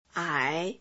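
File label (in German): Phoneme, chinesisch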